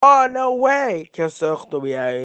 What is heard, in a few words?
arabi funny